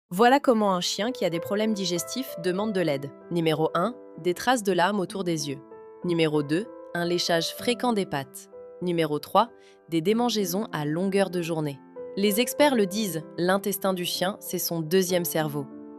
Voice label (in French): voix off